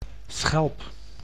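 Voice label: "schelp", Dutch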